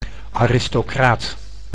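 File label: "aristocraat", Dutch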